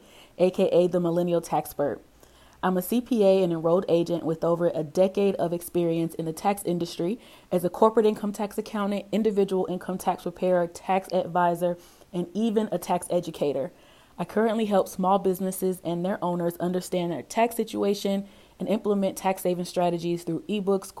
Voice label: Podcast Trailer; taxtalk